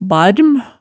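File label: "barm", Hemsedal dialect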